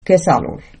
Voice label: i love you mikmaq